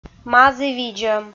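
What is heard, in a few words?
"мазі видзӧм", Komi, female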